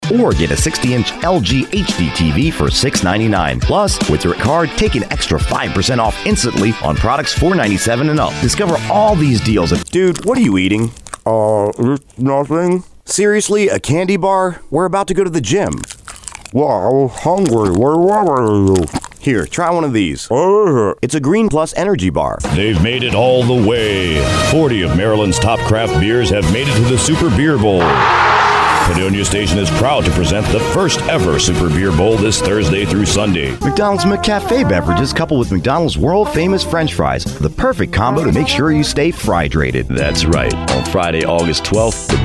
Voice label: Radio